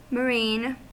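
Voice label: "marine", US English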